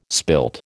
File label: "spilt", American